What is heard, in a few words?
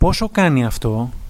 how much, Greek